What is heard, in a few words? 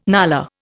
"nullah", Indian